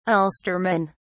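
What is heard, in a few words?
"ulsterman", British English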